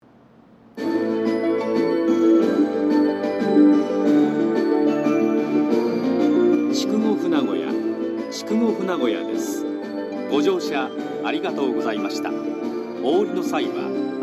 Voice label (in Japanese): 11番のりば到着放送 男声＋発車メロディ, 新幹線ホーム; 筑後船小屋